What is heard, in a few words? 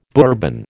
"Bourbon", American